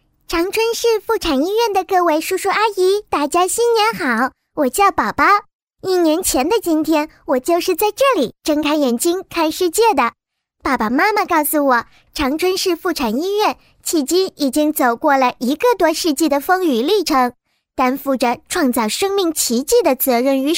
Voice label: 女童 妇产医院; 长春妇产医院; 国语女声配音

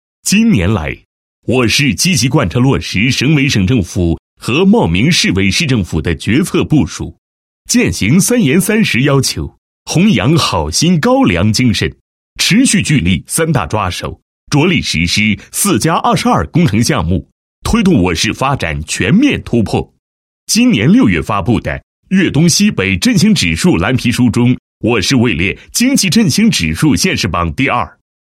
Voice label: 男 政府建设; 高州着力实施“4加22”工程项目

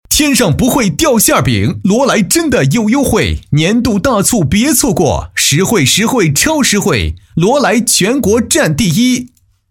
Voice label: 男, 广告 家纺广告配音; 罗莱家纺

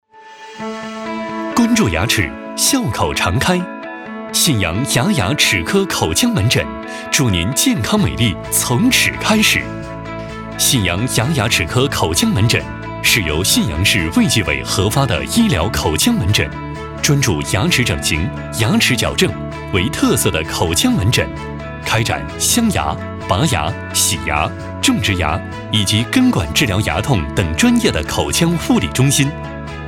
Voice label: 男 牙科医院宣传 轻松广告

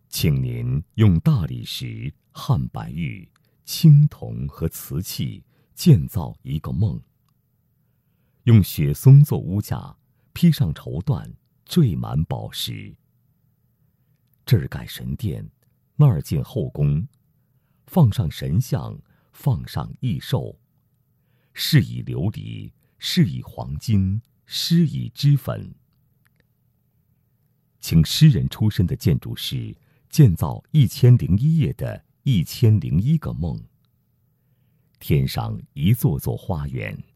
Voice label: 纪录片 片头; 圆明园片头; 模仿李易

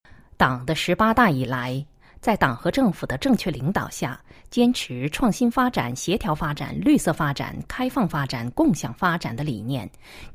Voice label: 女 报告, 成熟知性; 世英报告

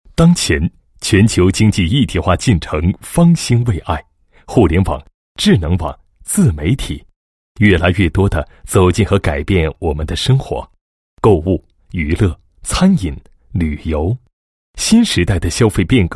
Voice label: 男 旅游 旅游联盟宣传片配音稿; 行天下

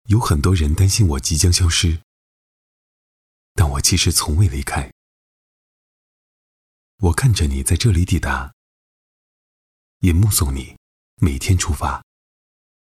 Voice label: 男 旁白, 暖声; 宜立方

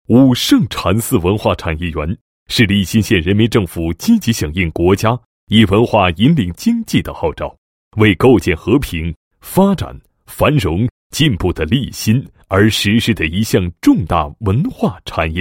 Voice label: 男 解说专题, 禅寺灯光秀视频策划解说; 伍圣禅寺

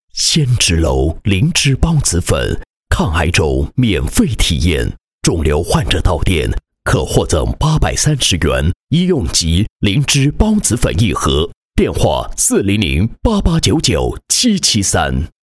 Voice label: 抗癌周电梯厢视频广告

